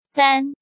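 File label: "Zān", Chinese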